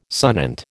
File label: "sonant", American